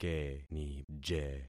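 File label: "kenije", concatenated syllables; Affixing experiment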